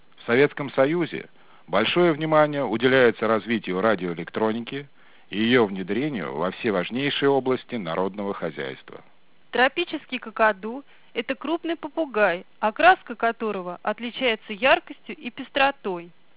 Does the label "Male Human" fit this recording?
No